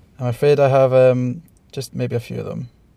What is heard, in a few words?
interviewee male filler